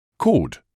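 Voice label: "cord", British English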